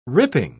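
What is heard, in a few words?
"ripping", British English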